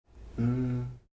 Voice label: "ṃ", Heiltsuk

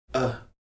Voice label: "h̓", Heiltsuk

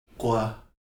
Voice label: "ǧv", Heiltsuk

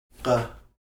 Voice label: "ǧ", Heiltsuk

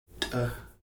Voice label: "t̓", Heiltsuk

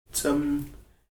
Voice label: "index finger", Heiltsuk

